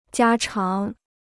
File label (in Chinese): "家常", Chinese